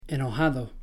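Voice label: "enojado", Spanish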